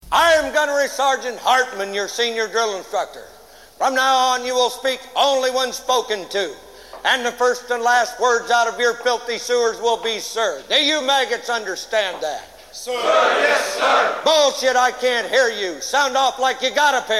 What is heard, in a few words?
Drill Instructor